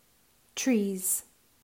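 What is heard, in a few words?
"Trees", English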